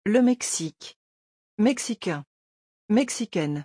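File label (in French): "mexicain", French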